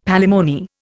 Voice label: "palimony", Indian; Dictionary